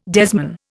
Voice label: "desman", Indian